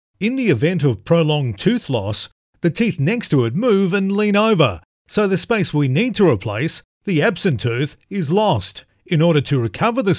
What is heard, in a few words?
locutor australiano australian voiceover; English voice over from Australia